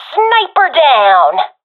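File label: i killed sniper, professional female; vo; Counter Strike Model Pack; CS:GO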